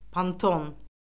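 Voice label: "ponton", Azerbaijani; Orfoqrafiya Lüğəti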